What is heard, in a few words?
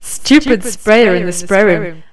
stupid sprayer, announcer